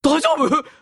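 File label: "daijoubu", Japanese; shinpachi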